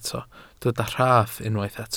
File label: "rhaff", Welsh